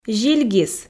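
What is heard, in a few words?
"зильгис", Komi, female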